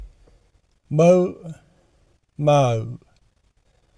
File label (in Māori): Mau Mau, te reo maori